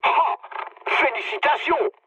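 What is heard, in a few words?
player killed enemy, mood low, French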